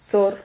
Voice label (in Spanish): "xor", Silabas